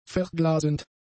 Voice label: "verglasend", German; adjectives